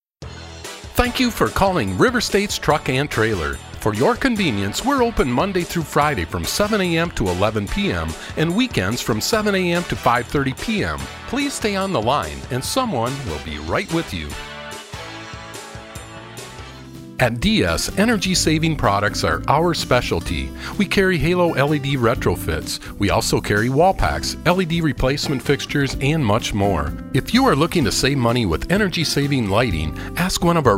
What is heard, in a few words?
Telephone On Hold